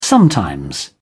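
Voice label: "sometimes", British English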